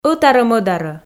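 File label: "ӧтарӧ-мӧдарӧ" Komi, female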